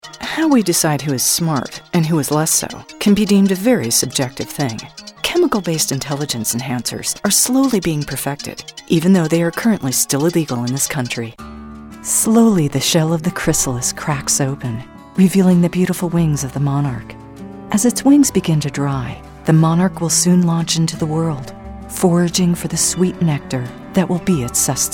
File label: Narration